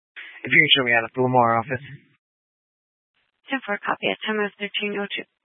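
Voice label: Dispatch, Scanner audio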